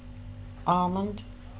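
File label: "almond", British, female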